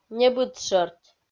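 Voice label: "небыд шӧрт", Komi, female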